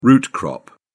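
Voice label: "root-crop", English, British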